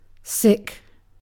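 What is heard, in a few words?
"sick", British English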